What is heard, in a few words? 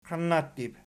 "qiannattib", Archi